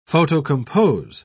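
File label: "photocompose", British English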